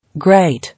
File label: "Great", English